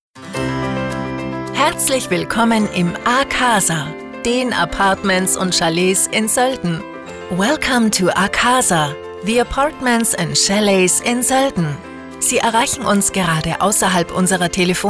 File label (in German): Nachtansage, Telefonanlagen; A CASA Soelden; telefonansagen hotels